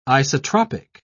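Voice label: "isotropic", British English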